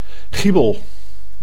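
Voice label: "giebel", Dutch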